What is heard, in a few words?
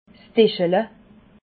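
"dischele", Alsatian, Bas Rhin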